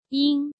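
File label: "Yīng", Chinese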